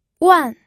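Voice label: "wan4", Mandarin Chinese, pinyin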